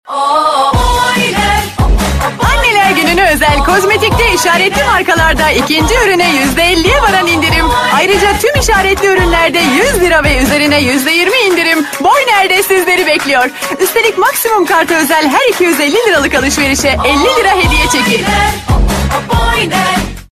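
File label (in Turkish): reklam, Turkish; boyner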